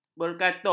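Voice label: "berkatup", Malay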